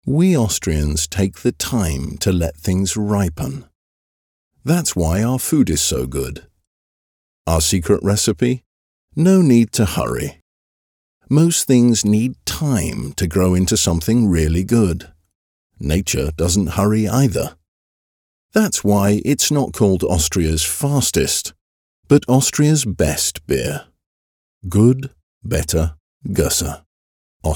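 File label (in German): English US Sanft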